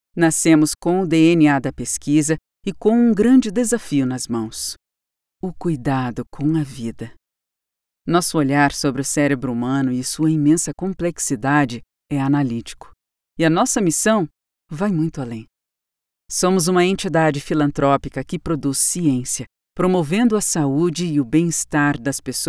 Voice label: portuguese brazilian animation